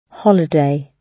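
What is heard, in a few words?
"holiday", British English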